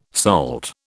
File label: "SALT", British